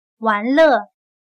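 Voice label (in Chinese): "玩了", Chinese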